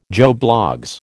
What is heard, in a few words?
"Joe Bloggs", American